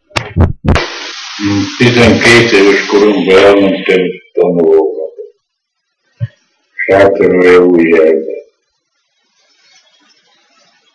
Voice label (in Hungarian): nyelvjaras gyujtesek